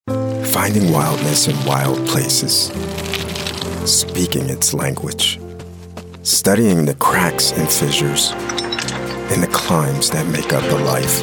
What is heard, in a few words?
Commercial